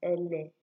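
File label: "ele", Nigerian Pidgin, female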